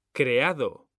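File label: "creado", Spanish, male; mekanta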